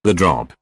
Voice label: "drop", Voice